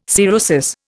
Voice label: "cirrhosis", Indian

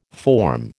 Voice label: "forme", American

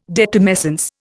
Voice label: "detumescence", Indian

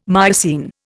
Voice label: "Miocene", Indian

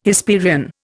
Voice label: "Hesperian", Indian

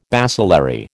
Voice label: "bacillary", American

